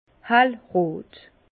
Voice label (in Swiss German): "hellrot", Bas Rhin; vin; vermillon